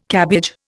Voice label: "cabbage", Indian

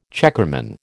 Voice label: "checkerman", American

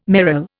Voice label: "merle", Indian

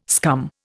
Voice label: "scum", Indian

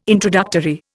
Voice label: "introductory", Indian

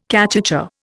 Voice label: "cachucha", Indian

